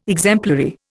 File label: "exemplary", Indian